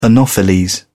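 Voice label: "anopheles", British English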